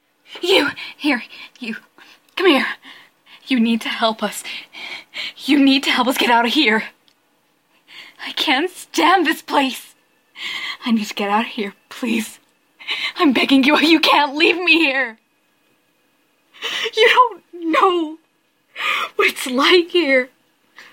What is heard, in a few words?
Female Hostage